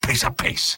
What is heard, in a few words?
sniper positive vocalization; tf2; mvm